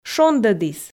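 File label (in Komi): "шондӧдіс", Komi, female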